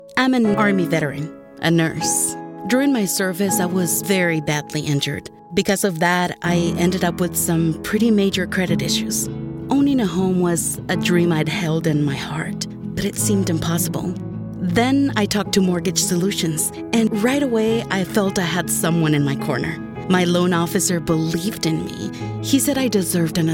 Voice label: English Radio Conversational